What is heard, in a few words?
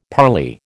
"parley", American